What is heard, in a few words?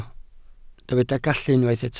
"gallu", Welsh